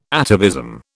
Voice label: "atavism", British